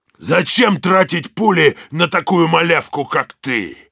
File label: Heavy melee dare, ru